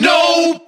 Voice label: "no" group of men; expletives